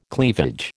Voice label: "cleavage", American